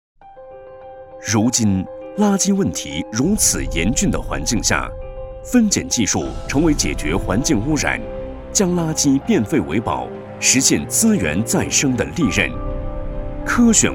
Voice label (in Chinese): 男,专题